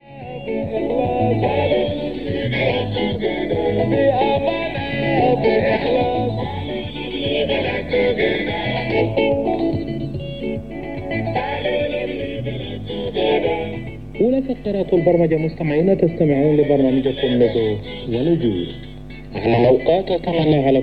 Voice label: Radio Tamazuj, 15150 KHz, arabo; Madagascar; FT2000; dipolo

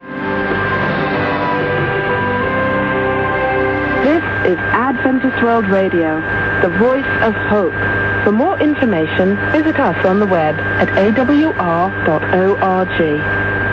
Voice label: Adventist World Radio, 11955 kHz, turco; Degen DE 1103; filo 5m indoor; St. Ursen

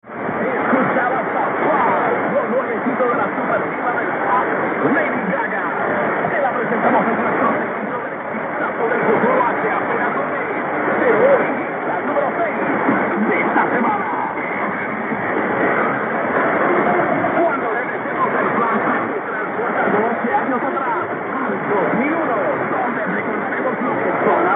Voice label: 5980 spagnolo; Radio Marti

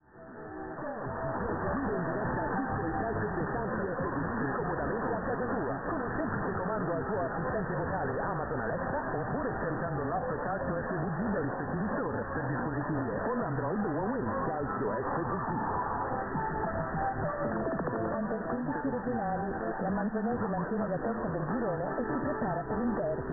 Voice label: 1503 kHz italiano; Radio Calcio FVG; Perseus; Schwarzsee

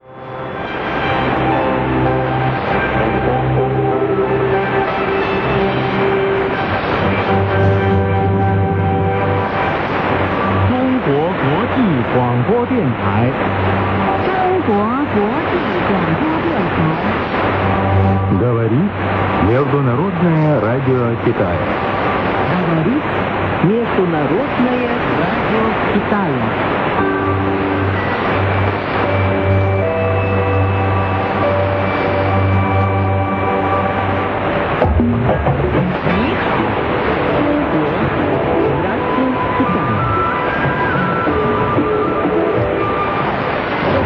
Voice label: China Radio International, 15445 kHz, russo; Degen DE 1103; filo 5m indoor; St. Ursen

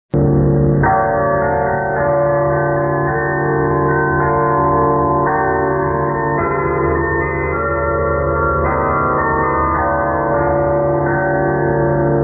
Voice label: arabo; Radio Nederland

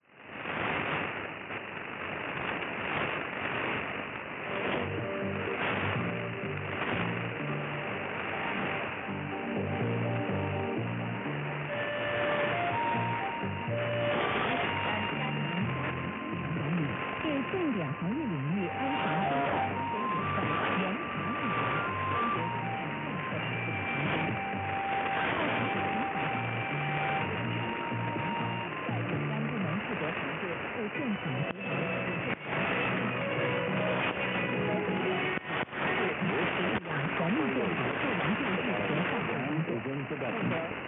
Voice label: 15745 kHz, tibetano; Radio Free Asia; Perseus; Schwarzsee

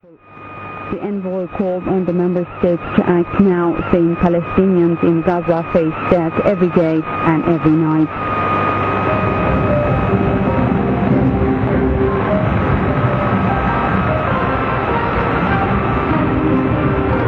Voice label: 6125 kHz inglese; Voice of Turkey; Degen DE 1103; filo 5m indoor; St. Ursen

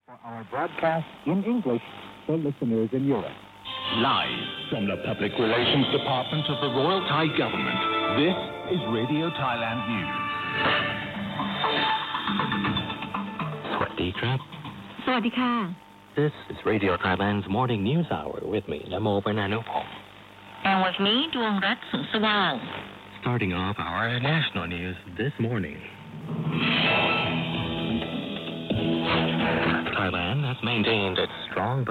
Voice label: 9920 kHz, inglese; Radio Thailand; Perseus; Schwarzsee

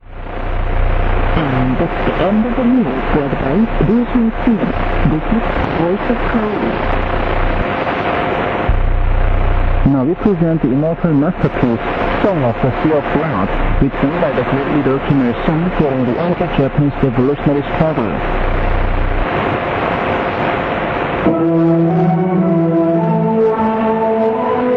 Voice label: 12015 kHz inglese; Voice of Korea; Degen DE 1103; filo 5m indoor; St. Ursen

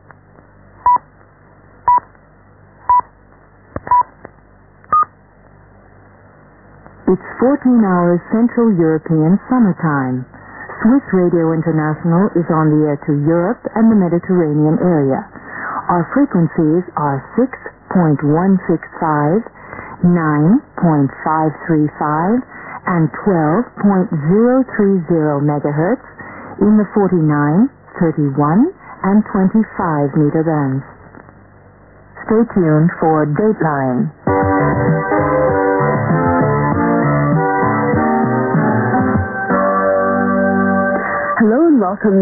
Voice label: inglese; Radio Svizzera Internazionale

